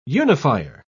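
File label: "unifier", British English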